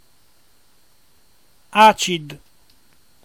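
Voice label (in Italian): "Àcid" Emilian dialect word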